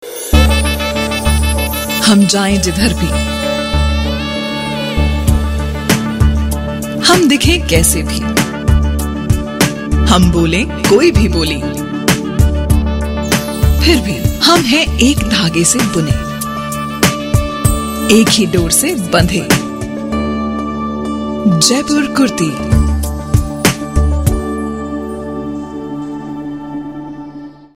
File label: promo hindi